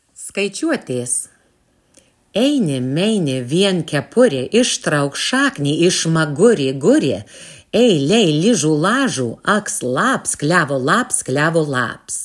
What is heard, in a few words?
narration; skaiciuotes